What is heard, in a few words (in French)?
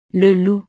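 "le loup", French; el lobo; animales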